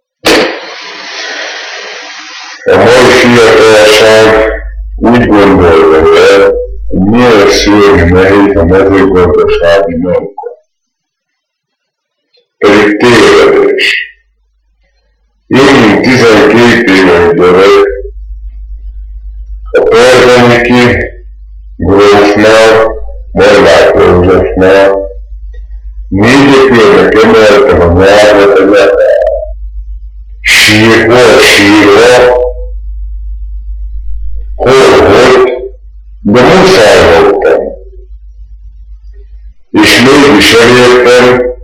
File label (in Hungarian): nyelvjaras gyujtesek